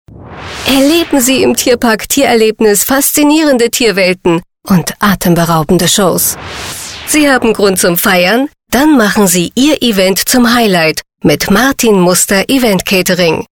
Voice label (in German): begeistert aktionistisch